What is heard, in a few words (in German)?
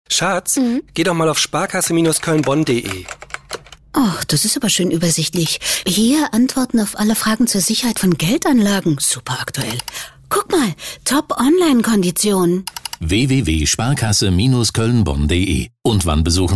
funkspot; sparkasse koelnbonn